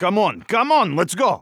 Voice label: radio lets go; Counter Strike; CS:GO; phoenix coop

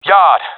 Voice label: yard, professional epic; player vo; Counter Strike Model Pack; CS:GO

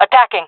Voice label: attacking, fem; jungle; Counter Strike Model Pack; CS:GO

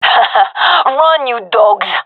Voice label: round winning, gendarmerie fem; vo; agents

